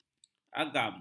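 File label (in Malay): "agam", Malay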